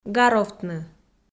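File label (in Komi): "гаровтны", Komi, female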